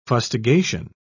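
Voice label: "fustigation", British English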